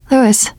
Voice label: name louis, teengirl, voice; player; survivor

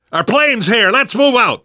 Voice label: arrive plane initial, survivor voice; Left 4 Dead 2; left4dead2 dlc3

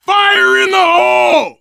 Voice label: grenade, survivor voice, biker; Left 4 Dead 2

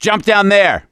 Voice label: misc directional, voice; gambler

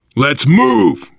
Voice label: move on, voice, biker

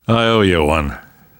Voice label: thanks, namvet, survivor voice

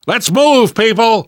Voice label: move on, survivor voice; Left 4 Dead 2; left4dead2 dlc3

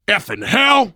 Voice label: reaction negative, voice, biker; Left 4 Dead 2; left4dead2 dlc3; survivor

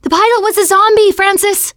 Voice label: finale truck end, teengirl, voice

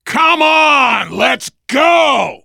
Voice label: hurry up, voice, biker; Left 4 Dead 2; left4dead2 dlc3; survivor

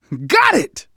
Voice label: kill confirmation, manager, survivor, voice; Left 4 Dead 2; left4dead2 dlc3

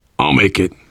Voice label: getting revived, voice, biker

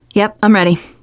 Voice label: answer ready, teen girl; player; survivor; voice

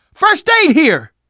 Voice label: spot first aid, survivor voice manager; Left 4 Dead 2; left4dead2 dlc3

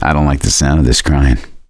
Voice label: heard witch, voice; Left 4 Dead 2; survivor; gambler

